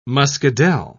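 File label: "muscadel", British English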